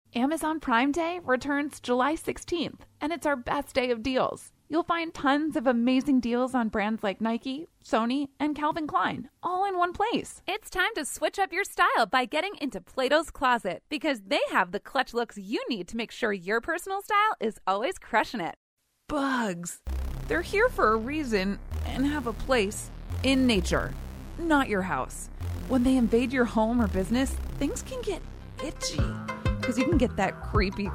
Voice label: VO Commercial, Professional Female Voice Over Actor